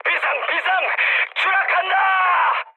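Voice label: Korean aircraft destroyed mood high, speech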